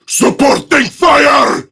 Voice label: Prime Grineer Male; Warframe; Tau; Battle

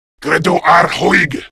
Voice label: Reload, Male

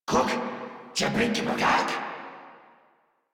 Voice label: Surprised Crewmen, en; Warframe; Corpus Crewmen; Crewman A; Battle Barks

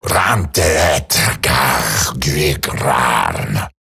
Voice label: Patrol Eximus Grineer Male; Battle Barks

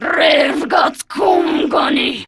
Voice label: Player Spotted, Grineer Female

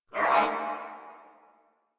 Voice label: threatened crewmen; Warframe; Corpus Crewmen; Crewman C

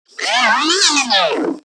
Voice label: Necramech Repair Drone Idle Surprised; Battle Barks

